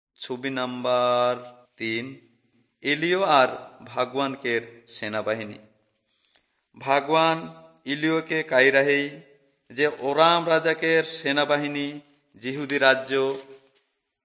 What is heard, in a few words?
urao, drama